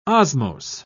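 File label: "osmose", British English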